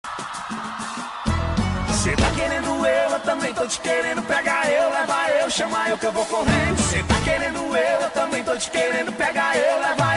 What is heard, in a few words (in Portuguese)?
Aniversário de Pessoa Especial – Voz Feminina; Telemensagem de Aniversário de Pessoa Especial